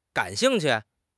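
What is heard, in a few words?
"gan3 xing4 qu4", Mandarin Chinese; HSK3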